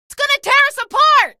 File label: alert gunship, female; video games; npc; source engine; episodic; episode 1